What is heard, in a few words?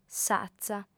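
"s'atza", female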